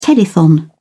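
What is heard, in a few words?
"telethon", British English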